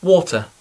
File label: "water", English